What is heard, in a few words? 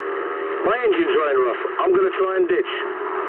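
damaged engine mood low, speech English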